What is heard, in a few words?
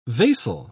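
"vasal", British English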